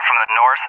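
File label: "from the N", English, USA; DCS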